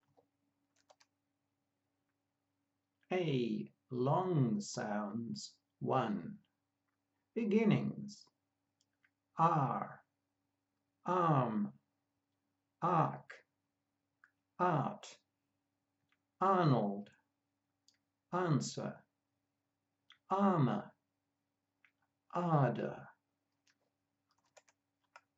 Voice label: A long sounds, pronunciation of australian english